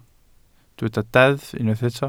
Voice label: "deddf" Welsh

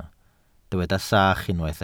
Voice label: "sach", Welsh

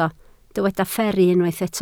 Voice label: "fferru", Welsh

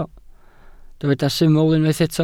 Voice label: "syml" Welsh

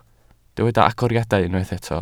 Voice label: "agoriadau", Welsh

